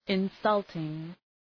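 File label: "insulting", English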